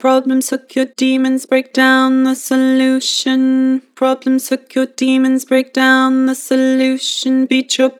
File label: Vocals Flat Beat your problems; Harmor